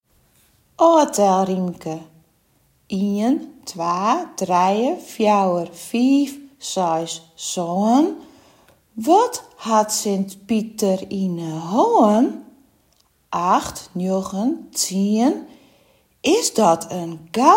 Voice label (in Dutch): Oftelrymke gedicht ingesproken